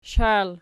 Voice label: "shail", Archi

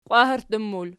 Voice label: "qwaherttum", Archi

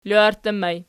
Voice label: "loarttur", archi

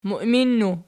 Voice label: "muiminnu", Archi

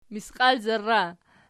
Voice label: "misqialzarra", Archi

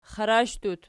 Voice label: xarashdut nom, archi

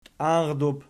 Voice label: "tianrdub", Archi

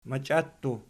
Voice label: "machiattu", Archi

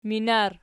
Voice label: "minar", Archi

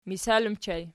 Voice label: "misal", Archi

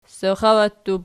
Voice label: "saxawattub", Archi

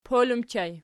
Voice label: "pol", Archi

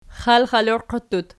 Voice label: "xalxaloqiattut", archi

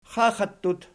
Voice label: "xaxattut", Archi

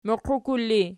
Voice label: "muqiukul", Archi

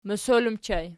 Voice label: "mosol", Archi

